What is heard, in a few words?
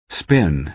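"spin", American English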